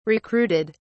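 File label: "recruited", British English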